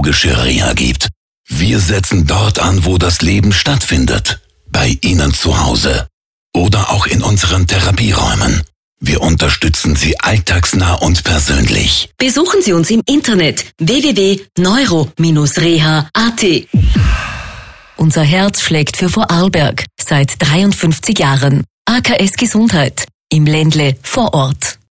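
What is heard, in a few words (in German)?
Spot Schlaganfall; AKS Vorarlberg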